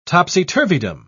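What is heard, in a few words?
"topsy-turvydom", British English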